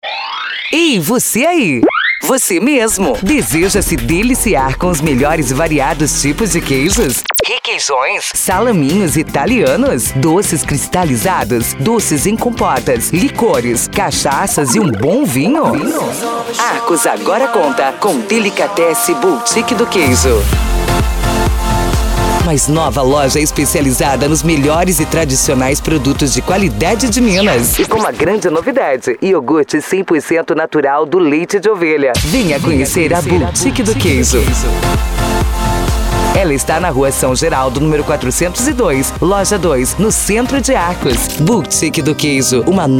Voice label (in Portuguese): Spot; Boutique